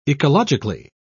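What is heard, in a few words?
"ecologically", British English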